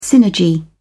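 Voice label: "synergy", British English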